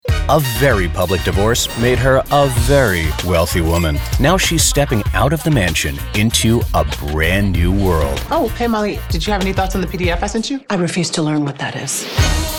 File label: Droll Ironic Wry; Loot